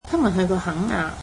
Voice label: cantonese they visited Kenya